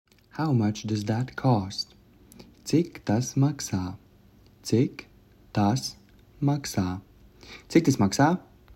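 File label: How much does that cost, Latvian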